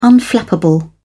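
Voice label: "unflappable", English, uk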